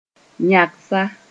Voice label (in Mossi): "yãgsa", moore